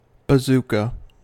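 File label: "bazooka", US English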